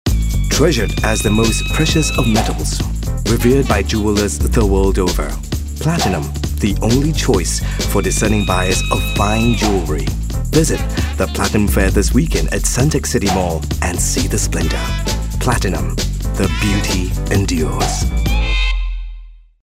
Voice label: Asian English, English Singaporean Male; PlatinumFair